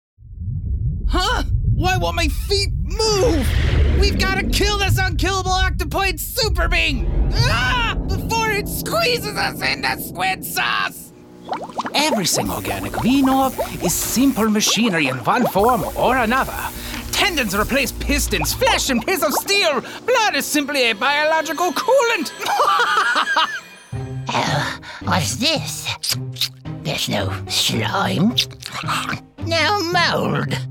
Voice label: Character & Animation